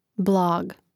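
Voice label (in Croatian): "blag", Hrvatski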